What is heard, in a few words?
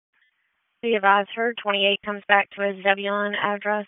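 Wake Forest Police Dispatch, p25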